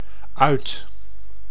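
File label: "uit", Dutch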